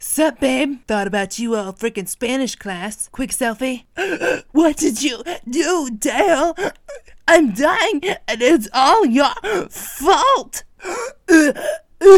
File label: vo character samples